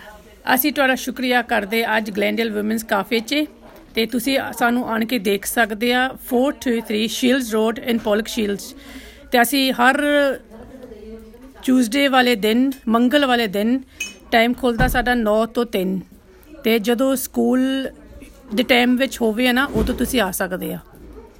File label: Punjabi Welcome